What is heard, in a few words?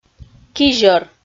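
"кизьӧр", Komi, female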